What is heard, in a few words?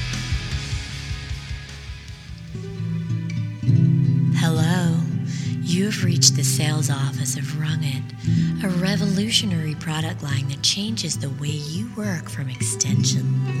Phone operator